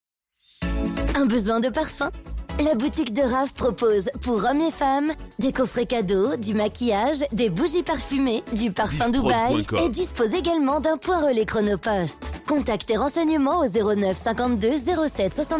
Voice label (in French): Spot Parfumerie Normande; La boutique de Raph